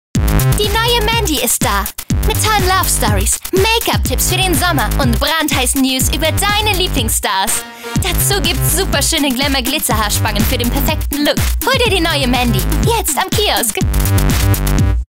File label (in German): Werbung